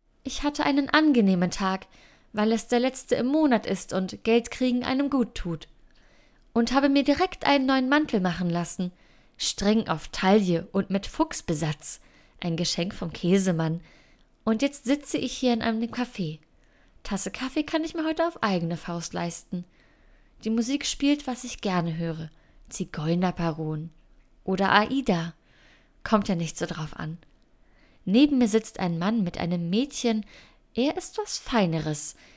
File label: GERMAN